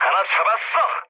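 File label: Korean player killed enemy mood low; War Thunder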